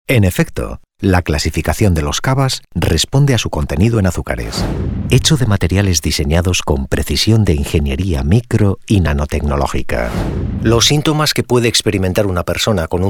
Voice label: Spanish Castilian male voice eLearning